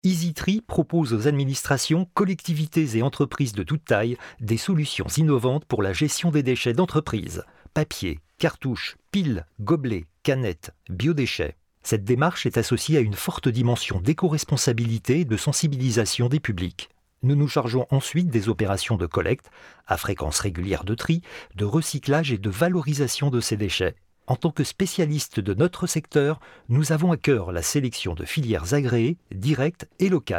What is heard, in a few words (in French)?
voix instit; institutionnel; Enregistrements dans la cabine speak